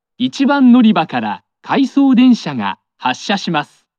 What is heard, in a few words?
dep nonservice, st announce; nabari st; kintetsu